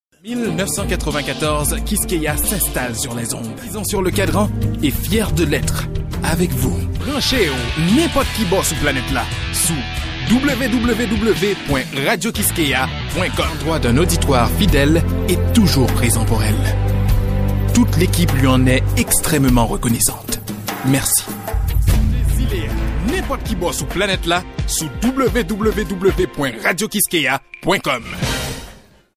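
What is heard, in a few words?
Creole French Haiti, male, voice over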